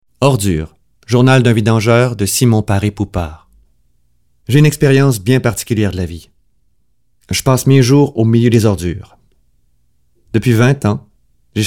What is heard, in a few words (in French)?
narration; COMÉDIEN; MONTRÉALAISE